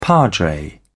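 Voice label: "padre", British English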